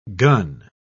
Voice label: "gun", pronounce